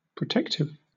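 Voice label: "protective", British English; pronunciations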